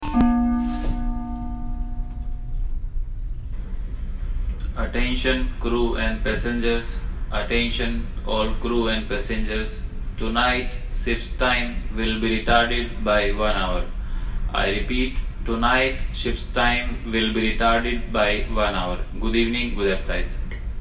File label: Announcment